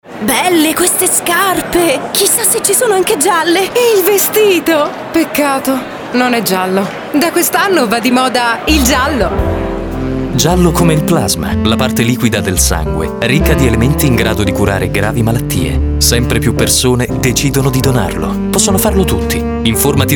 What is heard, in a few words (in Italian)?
spot; plasma